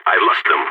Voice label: lost enemy, balkan; vo

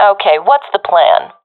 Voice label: request plan, professional fem; vo; Counter Strike Model Pack; CS:GO

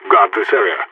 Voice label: radio bot hold, vo agents; phoenix

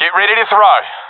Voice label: request grenade, professional epic; vo; Source Model Packs; Counter Strike Model Pack; CS2

